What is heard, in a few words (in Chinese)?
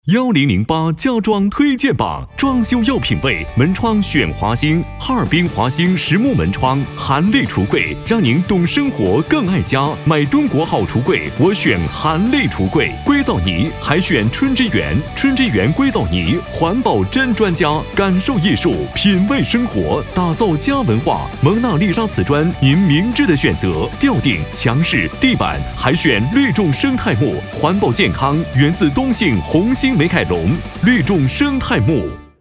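男国大音; 家装推荐榜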